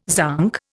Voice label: "zonk", Indian

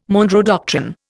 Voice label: "Monroe doctrine", Indian

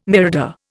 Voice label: "mirador", Indian

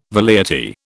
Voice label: "velleity", British

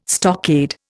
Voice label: "stockade", Indian

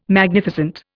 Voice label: "Magnificent", Indian

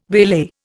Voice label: "waylay", Indian

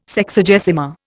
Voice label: "Sexagesima", Indian

